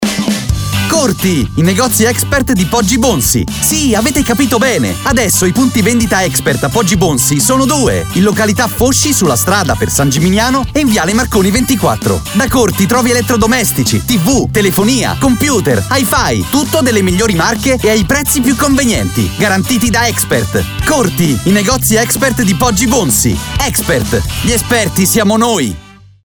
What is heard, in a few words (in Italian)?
Emozionante, entusiasta, energico